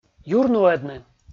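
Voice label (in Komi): "юрнуӧдны" Komi, male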